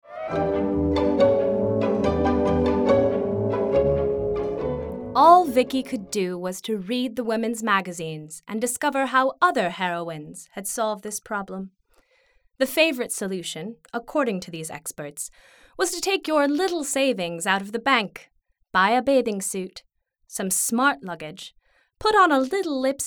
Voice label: Narrative